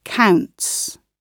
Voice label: "counts", gb